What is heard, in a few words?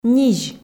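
"низь", Komi, female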